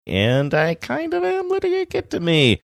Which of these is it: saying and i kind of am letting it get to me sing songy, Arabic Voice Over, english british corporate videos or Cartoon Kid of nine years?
saying and i kind of am letting it get to me sing songy